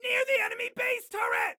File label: Warn Enemy Near Enemy Base Turret, Excited Guy